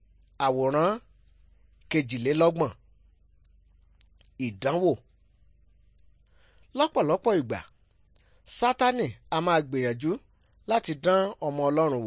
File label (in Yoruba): good news yoruba